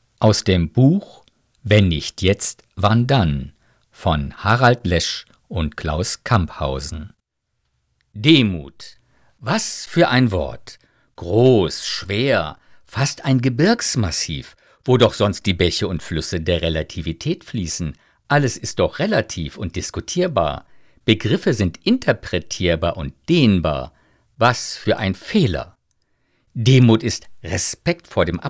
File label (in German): Hoerbuch; Demut; gekuerzt